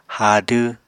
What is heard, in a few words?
"haadw", Apatani